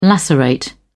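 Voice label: "lacerate", British English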